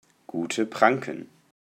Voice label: "Gute Pranken", German

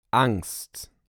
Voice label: "Angst", German

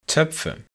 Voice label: "Töpfe", German

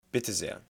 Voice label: "Bitte sehr", German

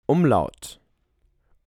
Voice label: "Umlaut", German

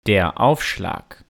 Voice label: "der Aufschlag", German

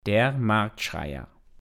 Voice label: "der Marktschreier", German

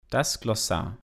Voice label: "das Glossar", German

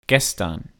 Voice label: "gestern", German

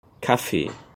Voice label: "Kaffee", German

